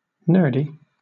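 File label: "nerdy", English, British accent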